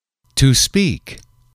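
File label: "to speak", English, male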